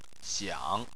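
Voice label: "xiang", Chinese, third tone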